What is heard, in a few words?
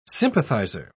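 "sympathiser", English, British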